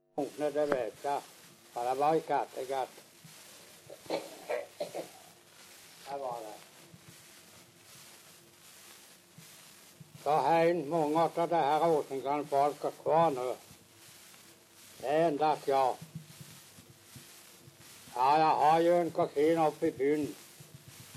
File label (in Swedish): dialekter; Folkminnen; Svenska sagor